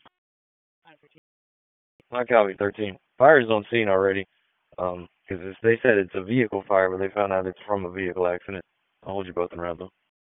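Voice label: Police Dispatch; Raleigh; Southeast District; wake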